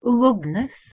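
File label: "lugnos", Proto-Indo-European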